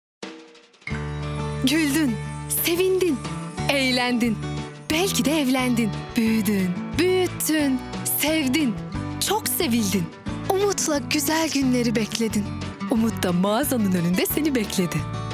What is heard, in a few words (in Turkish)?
duygusal motive, seslendirme